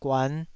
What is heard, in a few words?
"guan5", taiwanese